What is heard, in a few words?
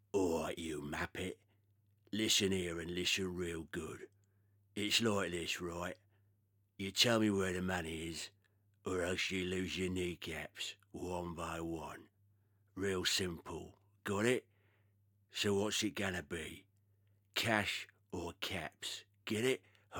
Gangster